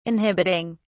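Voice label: "inhibiting", British English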